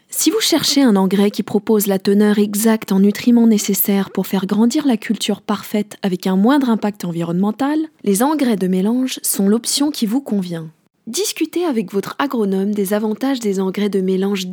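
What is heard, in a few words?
French female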